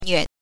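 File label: "nüe", neutral tone, Mandarin Chinese pinyin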